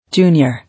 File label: "Junior", English